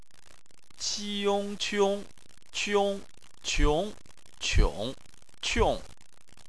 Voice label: "qiong", chinese